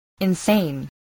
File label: "insane", voice spelling